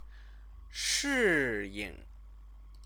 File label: "shiying", Chinese; Chinese lessons